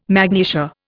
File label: "magnesia", Indian